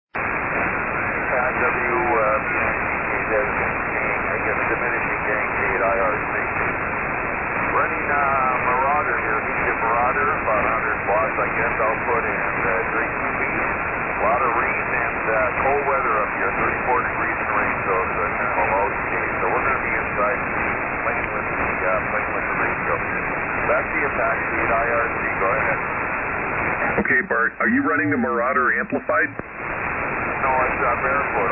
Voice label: Pengilly, MN. Heathkit HX-10 Marauder, vintage ssb